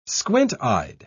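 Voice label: "squint-eyed", British English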